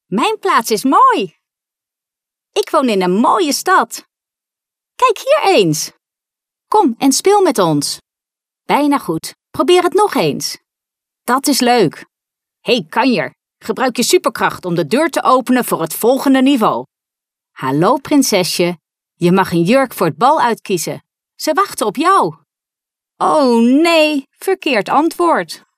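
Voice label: Dutch female children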